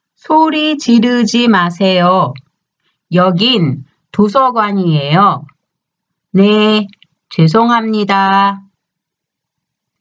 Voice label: conversation; K-Pop Volume 3